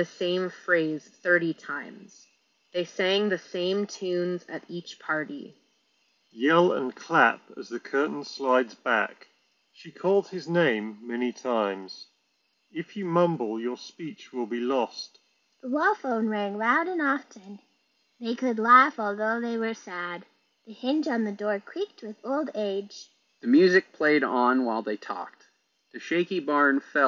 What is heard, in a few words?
Bose Quietcomfort Headphones Wind microphone sample